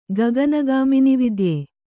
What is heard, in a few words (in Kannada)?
"ಗಗನಗಾಮಿನಿವಿದ್ಯೆ", Kannada